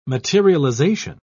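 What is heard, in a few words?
"materialisation", British English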